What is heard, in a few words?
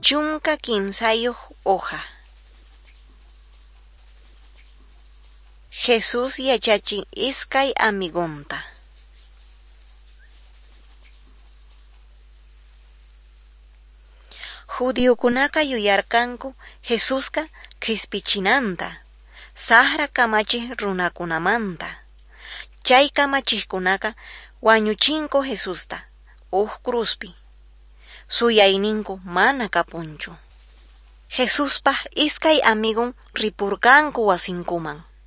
quechua norte de bolivia apolo